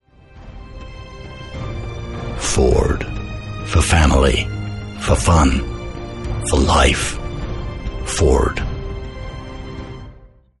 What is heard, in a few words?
Deep Advertisement